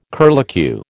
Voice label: "curlicue", American